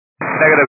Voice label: 122 725MHz, scanner radio